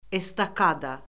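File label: "estakada", Azerbaijani; Orfoqrafiya Lüğəti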